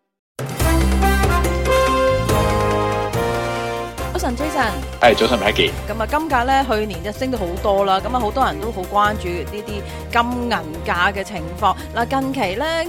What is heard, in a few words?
cantonese; 【白銀升勢跑贏黃金】避險與工業需求撐市 投資風險何在？; SBS Chinese; global finance